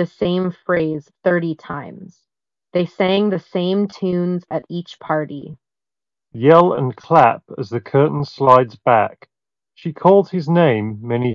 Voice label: Ideal microphone sample; HyperX Cirro Buds Pro

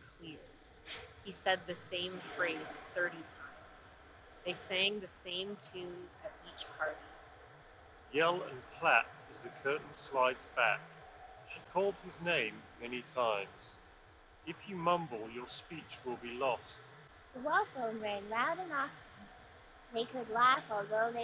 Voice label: Anker Soundcore Motion Street microphone

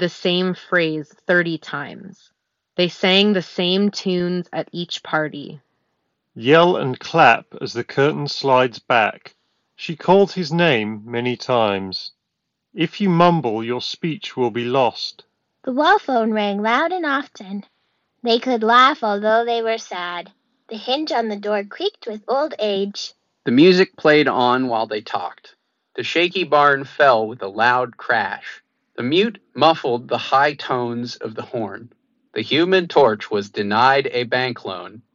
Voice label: Ideal microphone sample; Denon PerL Pro

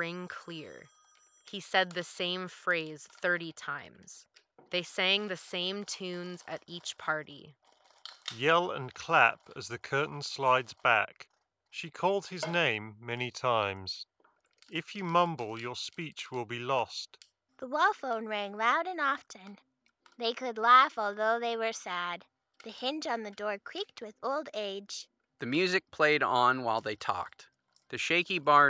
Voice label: Office microphone sample; Razer Kraken V3

